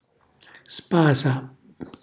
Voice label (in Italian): "spasa", Calabrese; cucina calabrese